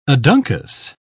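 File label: "aduncous", British English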